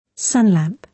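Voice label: "sunlamp", British English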